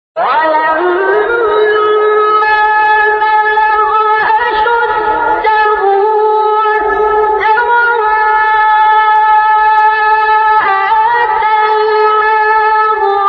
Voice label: تلاوت دلربای از آیه 14 سوره قصص; عبدالباسط

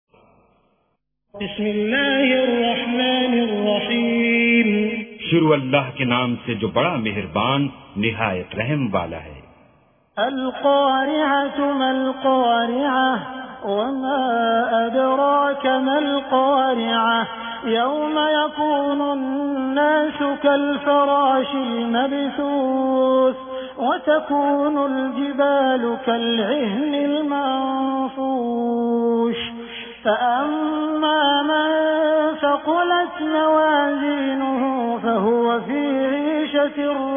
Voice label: ترجمه گویای قرآن کریم به زبان اردو; با تلاوت سدیس و شریم; سوره قارعه

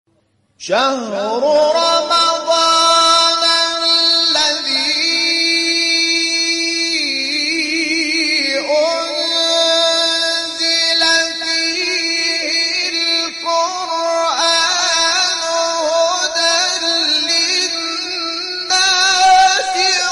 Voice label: تلاوت آیه 185 سوره بقره; محمود شحات انور

